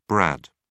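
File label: "brad", English, British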